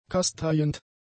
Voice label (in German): "kasteiend", German; adjectives